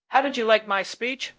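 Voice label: Voice Acting Spanish, male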